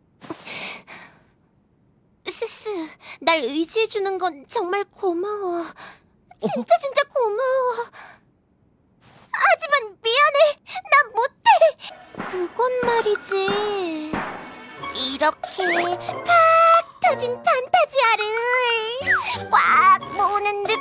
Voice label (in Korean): 10대 순수하고 예쁜 여주인공